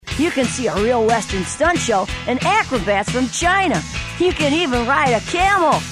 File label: Commercial Voice Demo; Track 6 Bart-like voice